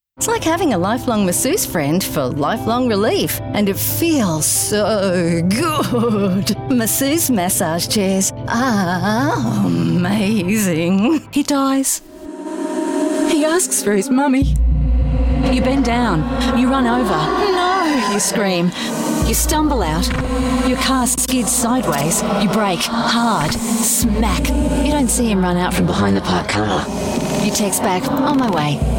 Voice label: Voice Acting

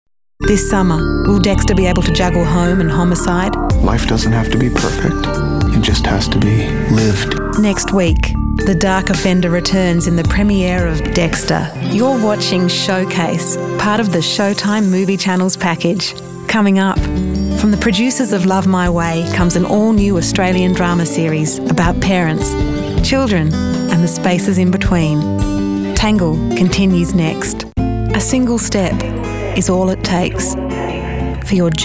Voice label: promos

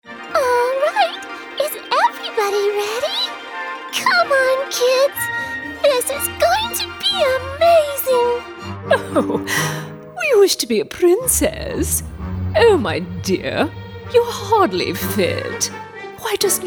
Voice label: animation

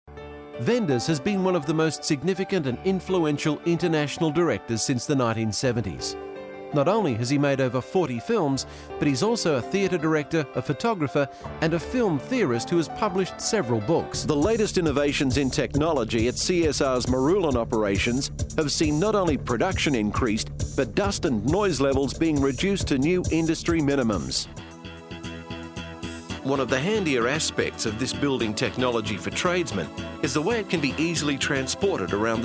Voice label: corporate

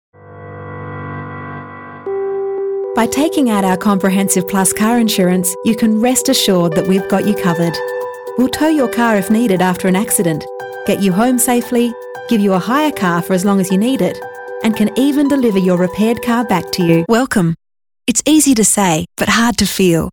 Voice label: corporate, Australian voice actor

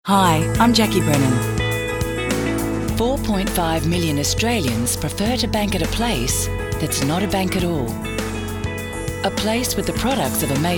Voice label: CORPORATE

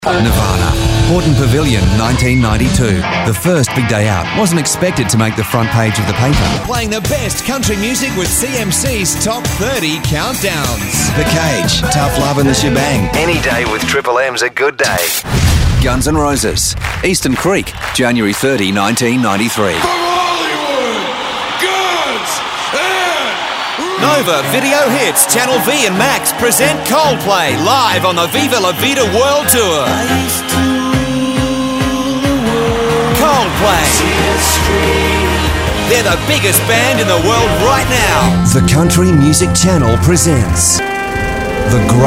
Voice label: PROMOS

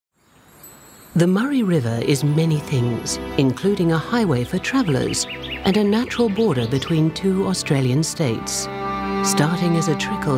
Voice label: corporate

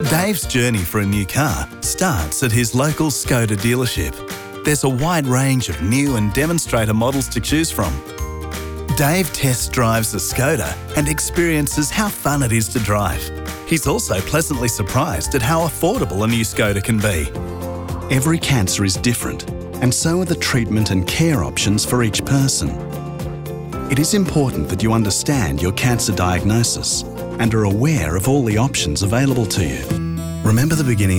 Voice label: narration voice

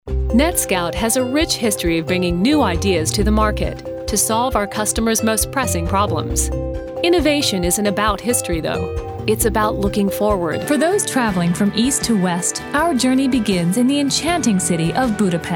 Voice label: corporate

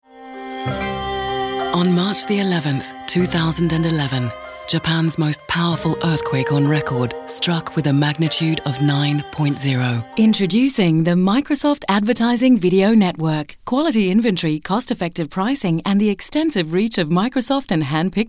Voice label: Corp Doco

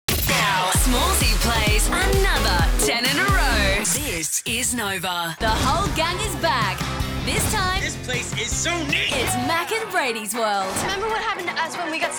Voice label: Promo

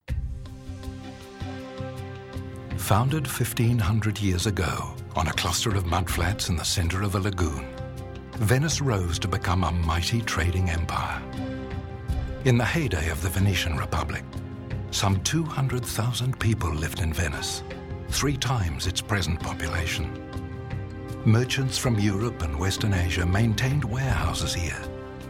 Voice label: Narration

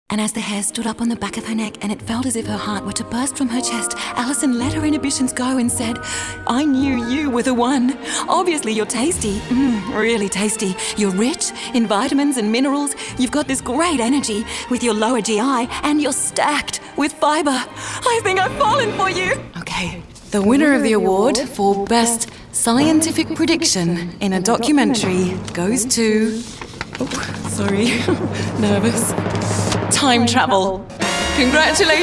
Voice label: Voice Acting

